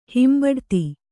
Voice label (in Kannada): "ಹಿಂಬಡತಿ", Kannada